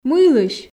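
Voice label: "мыйлысь", Komi, female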